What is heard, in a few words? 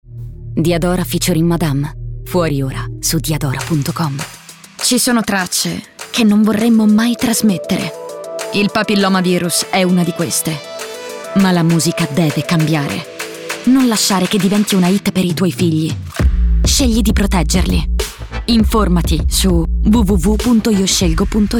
Straigh Cool, Female Voices